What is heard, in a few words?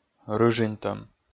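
"рыжинтӧм", Komi, male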